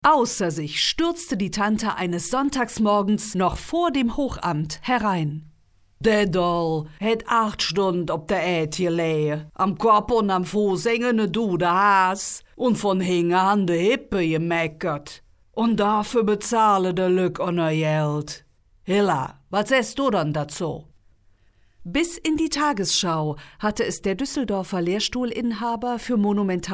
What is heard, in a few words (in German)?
hoerbuch rheinischer dialekt; ulla hahn